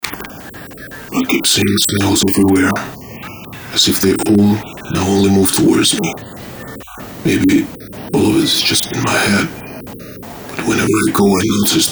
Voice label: Voice mail